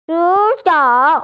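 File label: "raise hand", Mandarin; ju3shou3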